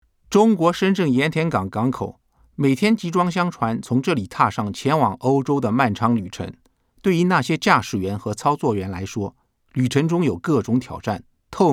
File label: cn mandarin image; sprecher; chinesisch